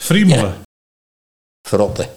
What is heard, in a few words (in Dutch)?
"Frotte", Nieuw lekkerlands dialect; friemelen